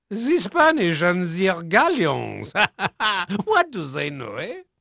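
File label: French male, voice; Pirates Of The Caribbean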